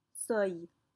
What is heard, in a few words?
"seuil", French